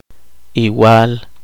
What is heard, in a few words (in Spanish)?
sembrador, poqomchi